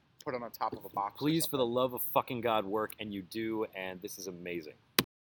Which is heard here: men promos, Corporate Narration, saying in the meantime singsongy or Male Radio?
Male Radio